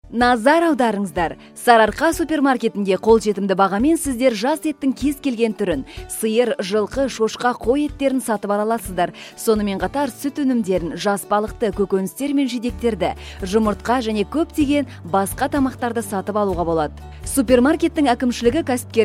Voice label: WhatsApp Audio